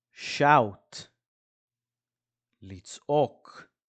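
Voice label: "shout" English, "לצעוק" Hebrew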